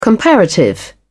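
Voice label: "comparative", British English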